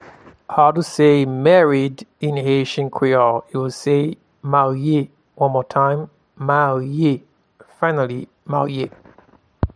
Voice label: Married in Haitian Creole, Marye

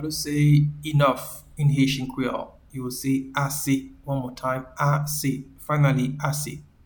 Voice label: Enough in Haitian Creole Ase

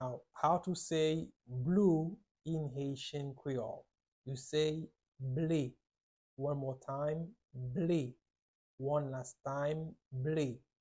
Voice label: Blue in Haitian Creole ble

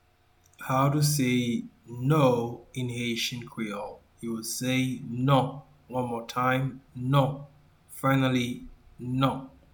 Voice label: "Non", Haitian Creole; “No” is translated as “Non” in Haitian Creole

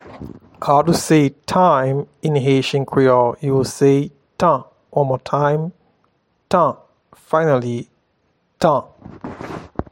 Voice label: Time in Haitian Creole, Tan

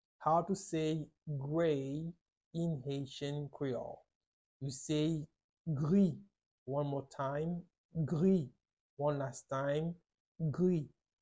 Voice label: Gray in Haitian Creole, "Gri" audio pronunciation

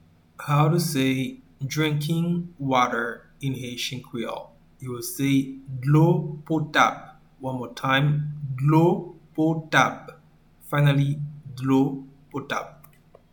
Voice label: "Dlo potab", Haitian Creole pronunciation; “Drinking water” is translated as “Dlo potab” in Haitian Creole

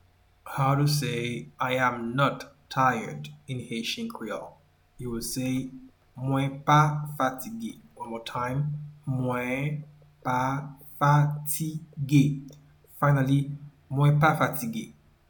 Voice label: "Mwen pa fatige", Haitian Creole; “I am not tired” is translated as “Mwen pa fatige” in Haitian Creole

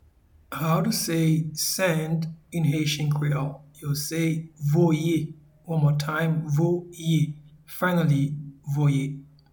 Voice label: Send in Haitian Creole Voye

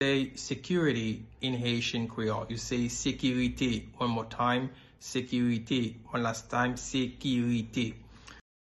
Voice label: Security in Haitian Creole Sekirite pronunciation

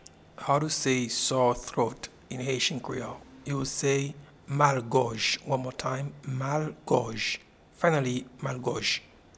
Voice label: Sore throat in Haitian Creole Malgoj

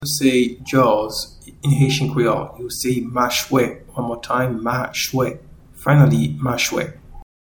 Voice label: Jaws in Haitian Creole Machwe

